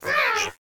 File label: Alien Voice